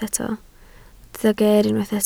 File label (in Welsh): "geer" Welsh